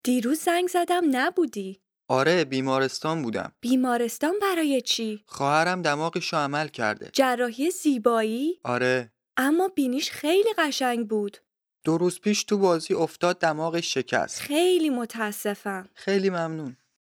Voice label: Dialogue lesson Farsi